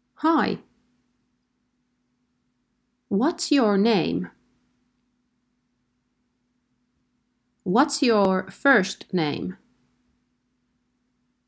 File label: English spell and react